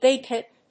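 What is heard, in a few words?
"big hit", English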